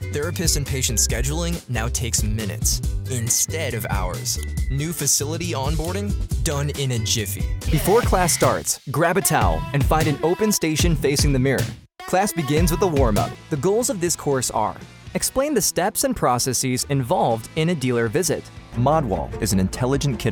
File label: narration